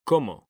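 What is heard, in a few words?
"COMO", Spanish; mekanta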